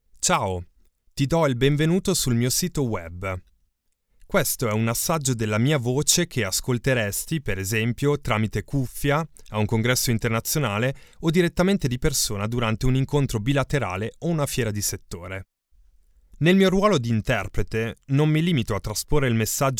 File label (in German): stimmprobe it, voice over